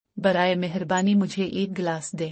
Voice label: Please give me a glass. - Urdu; Food and Eating